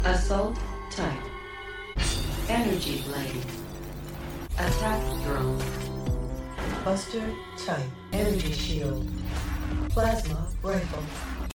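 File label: Video Game computer, Engaging, Authentic, Warm Female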